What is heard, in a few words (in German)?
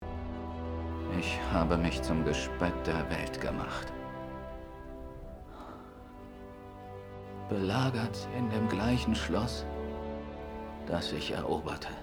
Synchron